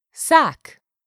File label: "SACK", english; 3000 words